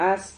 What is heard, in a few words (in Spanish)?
"haz", Silabas